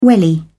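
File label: "welly", British English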